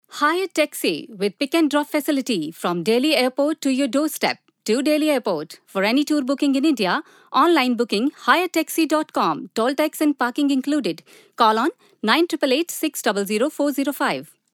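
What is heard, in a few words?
promo english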